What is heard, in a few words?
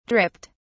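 "dripped", British English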